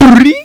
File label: Spring, Bad Vocals